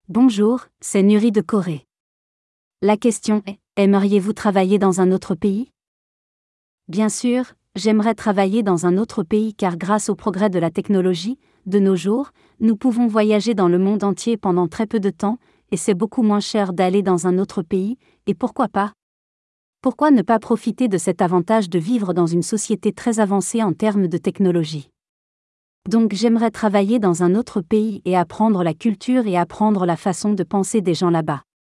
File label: Audiobook Voice